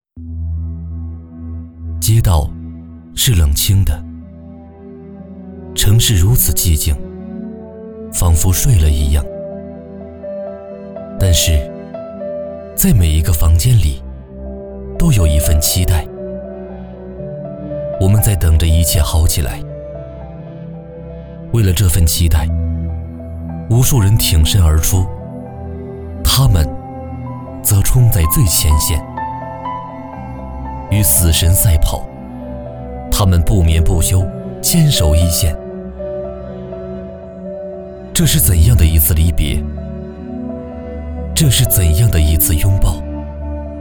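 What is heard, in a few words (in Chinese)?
男声 国语 公益广告 大气浑厚磁性 沉稳 积极向上; 希望之光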